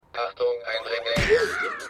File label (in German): Alarmstimme